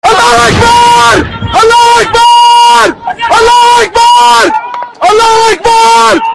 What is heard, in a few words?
allahu akbar really loud